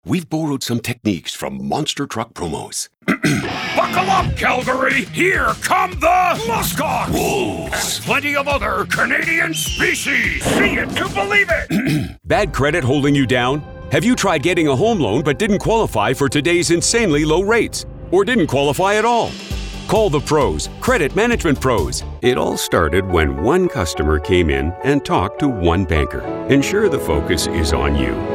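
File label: voiceover work examples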